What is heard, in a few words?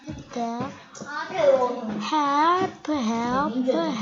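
"hip", spoken word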